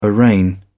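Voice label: "arraign", British English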